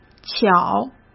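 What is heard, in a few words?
"巧", Mandarin Chinese; hsk; chinese-flashcards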